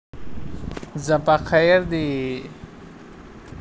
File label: voice message